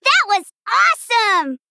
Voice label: Female Gnome WOW

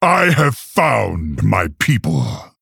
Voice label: Male Orc Picked

